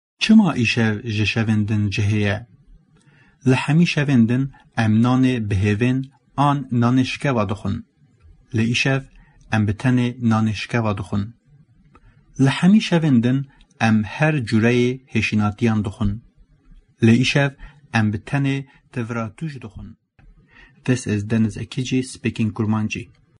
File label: kurmanji